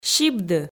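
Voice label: "сибды" Komi, female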